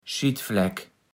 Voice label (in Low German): "Schittfläck", platt